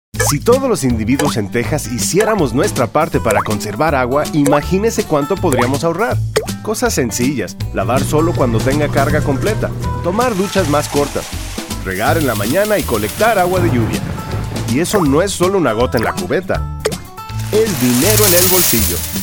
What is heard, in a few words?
water conservation spanish; psa